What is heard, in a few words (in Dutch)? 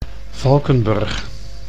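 "Valkenburg", Dutch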